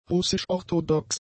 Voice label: "russisch-orthodox", German; adjectives

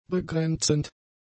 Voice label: "begrenzend", German; adjectives

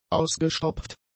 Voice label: "ausgestopft", German; adjectives

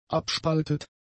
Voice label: "abspaltet", German; adjectives

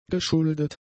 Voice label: "geschuldet", German; adjectives

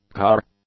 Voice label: "car", English; nouns

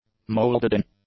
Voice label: "moulded-in", English; adjectives

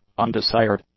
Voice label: "undesired", english; adjectives

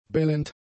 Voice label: "bellend", German; adjectives

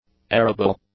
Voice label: "arable", English; adjectives

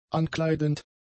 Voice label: "ankleidend", German; adjectives

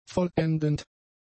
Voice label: "vollendend", German; adjectives

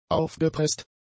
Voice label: "aufgepresst", German; adjectives

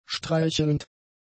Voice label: "streichelnd", German; adjectives

